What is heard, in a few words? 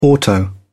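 "auto", British English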